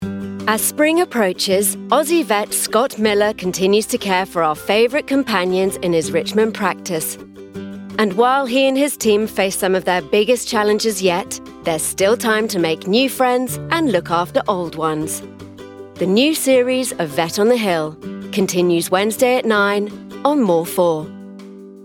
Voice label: Promo Reel